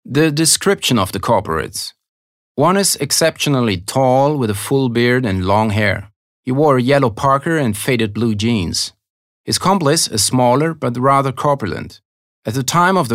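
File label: American English, Kommentar, Neutral; EngUS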